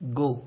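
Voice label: "go", Nigerian Pidgin, male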